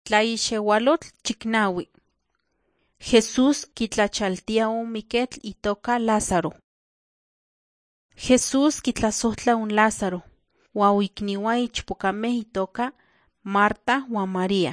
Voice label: nahuatl guerrero tlapa; the bible league nt drama